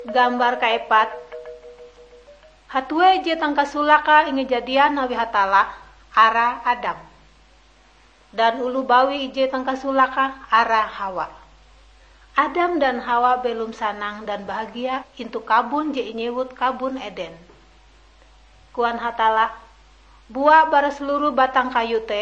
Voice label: kabar bahalap good news ngaju, drama

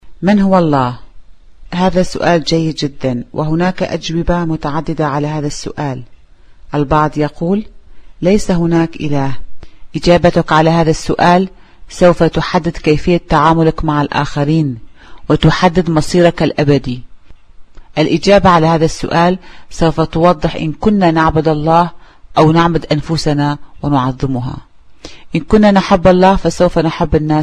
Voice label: who is god arabic; portrait of jesus

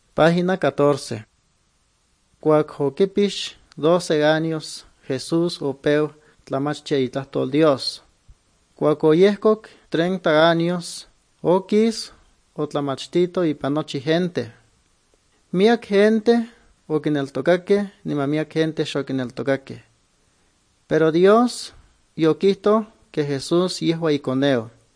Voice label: good news, nahuatl guerrero chilapa; bible; guerrero nahuatl the bible league nt drama

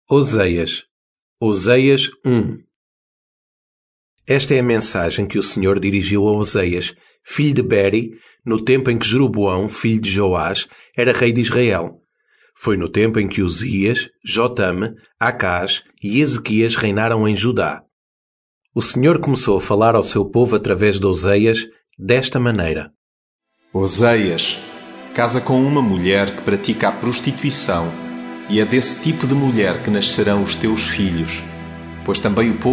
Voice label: portuguese, drama; portuguese bsp nt drama; oseas

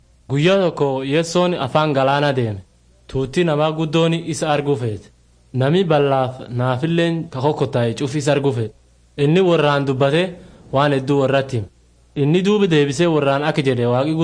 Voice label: words of life borana gabbra

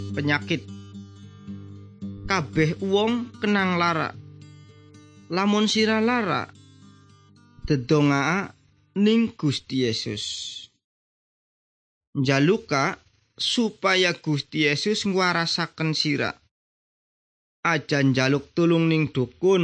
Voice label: good news jawa indramayu, javanese bible

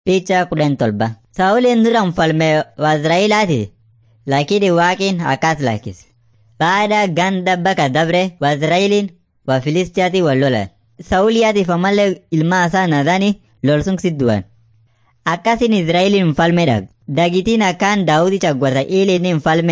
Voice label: afan munyoyaya; servants of god

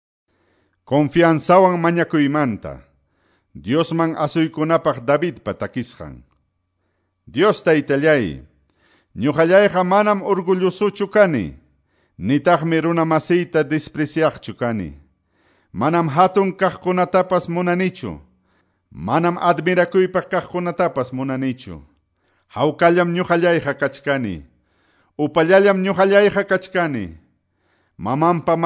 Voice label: salmos, quechua ayacucho

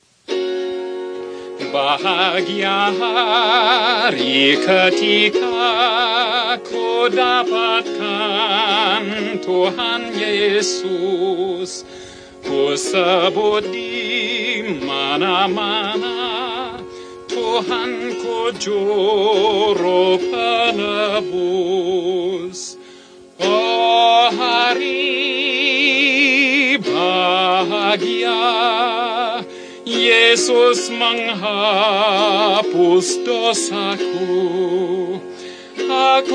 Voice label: bahasa indonesia; words of life 1

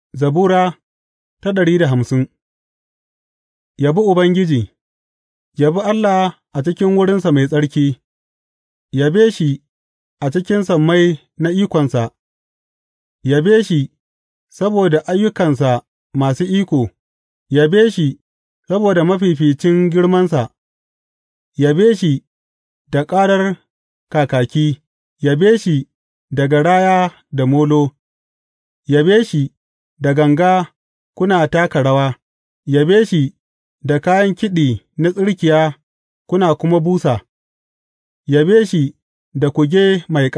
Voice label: hausa bible drama, psalms; hausa bsn nt drama

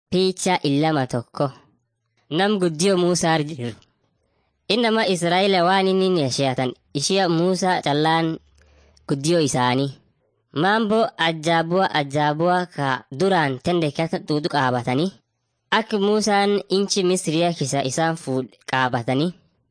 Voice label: afan munyoyaya; mighty men of god

